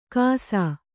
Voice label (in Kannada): "ಕಾಸಾ", kannada